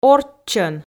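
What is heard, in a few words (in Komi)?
"орччӧн", Komi, female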